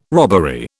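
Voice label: "robbery", British English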